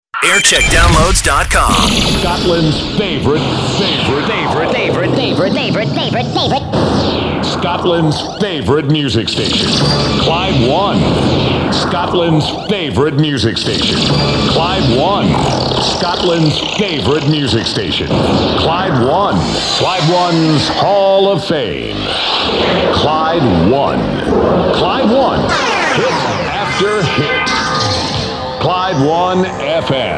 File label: imaging; Clyde 1 FM Glasgow; Radio Clyde; 1990s